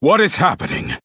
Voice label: Male Human, Victory; Cornelius